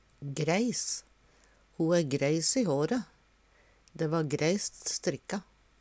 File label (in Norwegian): greis, Numedalsmål; Adjektiv